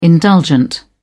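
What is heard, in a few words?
"indulgent", British English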